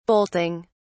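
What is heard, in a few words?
"bolting", British English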